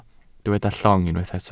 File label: "llong", welsh